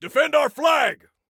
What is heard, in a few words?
Flag Defend, Soldier Male